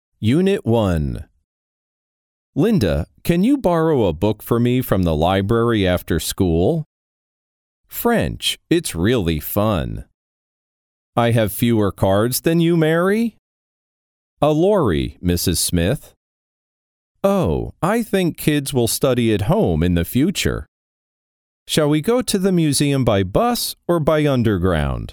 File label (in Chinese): 外籍男英 外语 美式英语 同步听力